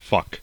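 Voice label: "fuck", American English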